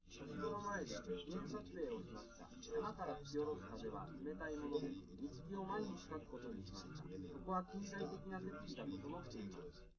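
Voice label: male3 live recording 130ms 1m, underdetermined; SiSEC11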